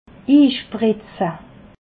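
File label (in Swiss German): "inspritze", Alsatian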